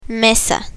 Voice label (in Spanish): "mesa", Spanish; spanish alphabet